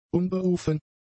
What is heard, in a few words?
"unberufen", German; adjectives